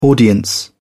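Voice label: "audience", British English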